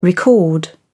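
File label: "record", British English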